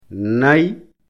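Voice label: "nay", Fula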